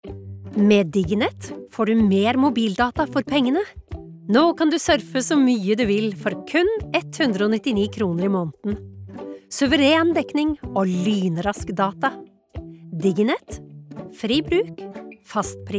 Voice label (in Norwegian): no reklame, norsk, kvinne